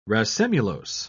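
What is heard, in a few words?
"racemulose", English, uk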